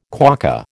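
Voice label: "quokka", American English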